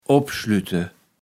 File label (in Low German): "opschliete", kaevels platt